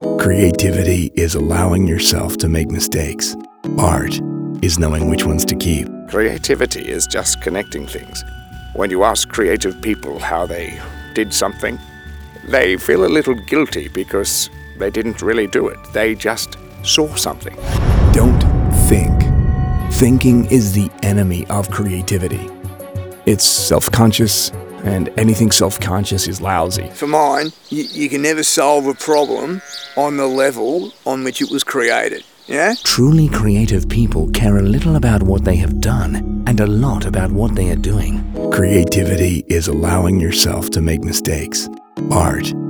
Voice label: Accent Compilation

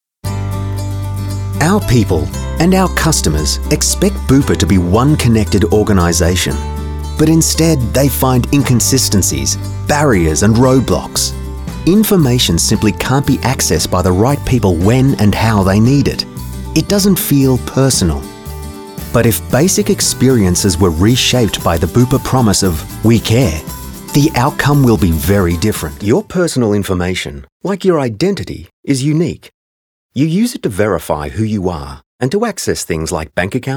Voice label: NARRATION

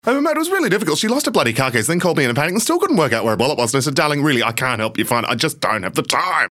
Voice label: Fast Read

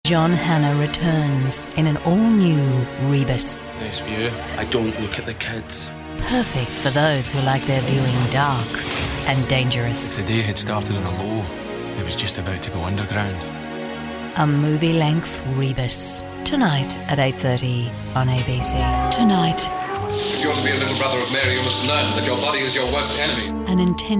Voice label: promo

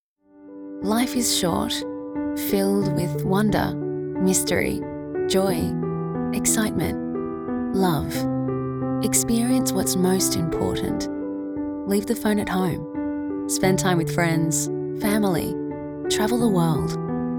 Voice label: Soft Natural

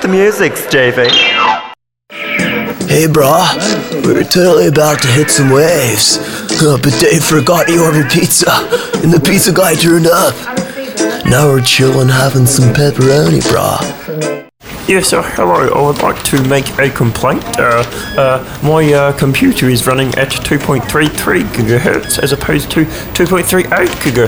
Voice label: Character

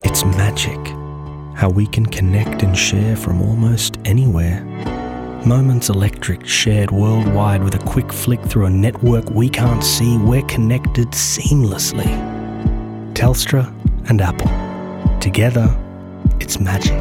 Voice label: Voiceover

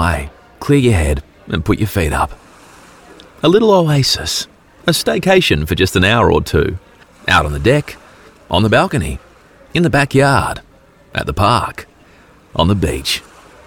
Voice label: Average Bloke Pub Test

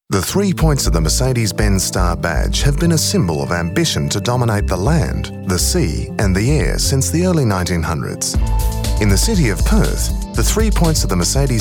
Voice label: Corporate

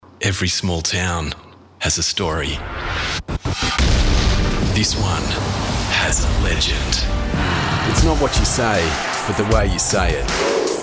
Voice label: promos; VO DEMO

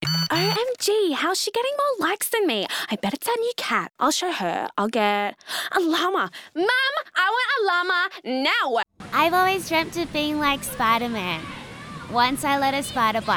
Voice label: character and animation

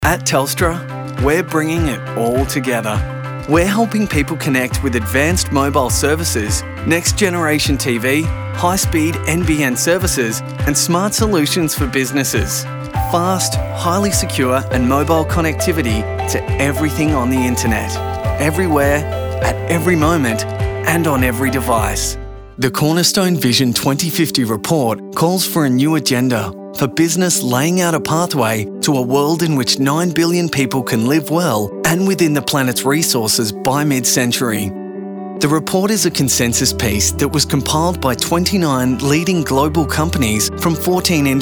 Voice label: corporate

